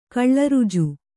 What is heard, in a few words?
"ಕಳ್ಳರುಜು", kannada